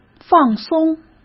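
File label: "放松", Mandarin Chinese; chinese-flashcards; hsk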